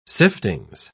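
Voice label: "siftings", British English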